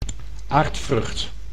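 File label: "aardvrucht", Dutch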